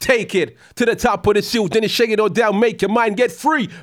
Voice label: RAP PHRASE

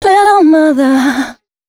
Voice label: female, Dance Ultra Voice hooklines; Mutekki Media; Dance Ultra Voice VOL-1

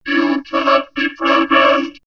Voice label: Vocoder U Cannot Be, robot vox; X-Static Goldmine

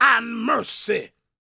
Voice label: PREACHIN, Gospel, PREACHER; Vocal Planet Vol1 Gospel

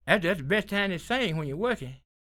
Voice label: SPOKEN, Blues Soul, TALK

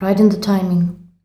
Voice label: "Right in", shout; Best Service; Hyper Dance

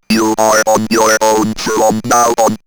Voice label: Robot On Your Own, robot vox; X-Static Goldmine

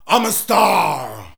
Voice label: "I'm a star", shouts; Best Service; Hyper Dance

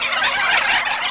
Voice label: Intro Chatter Japanese; SNES; Super Mario All-Stars

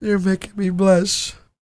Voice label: DIALOG, R&B Dance, FEM. SPOKEN

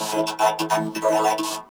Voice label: VOCODER VOX; Masterbits - Tekkno Trax

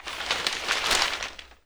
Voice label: NEWS; AKAI Anime Sound FX

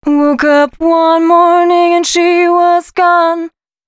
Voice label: female a 140, short hooks, Dance Voice; Mutekki Media; Dance Ultra Voice VOL-1

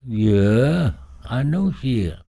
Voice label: talk, spoken, blues soul; Spectrasonics Vocal Planet

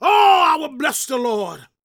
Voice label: PREACHIN, Gospel, PREACHER; ILIO; Vocal Planet

